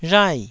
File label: "rhai4", taiwanese